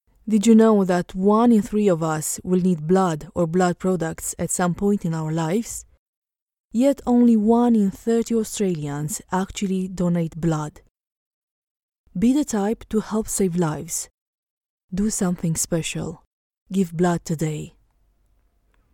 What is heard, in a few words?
English Medical Give Blood